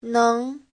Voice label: "neng2", Chinese